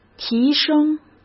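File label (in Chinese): "提升", Mandarin Chinese; chinese-flashcards; hsk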